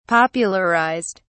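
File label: "popularized", British English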